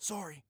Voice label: sorry whisper